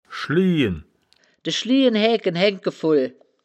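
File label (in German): "schliehen", wittlicher woerterbuch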